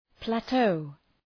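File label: "plateau", English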